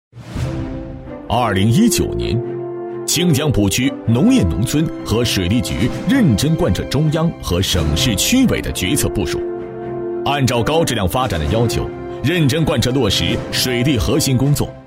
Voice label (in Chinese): 汇报片水利工程汇报